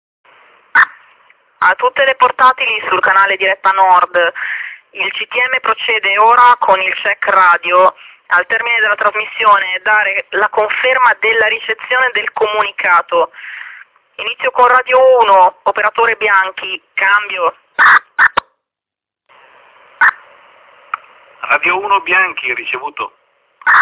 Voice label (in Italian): messaggio broadcast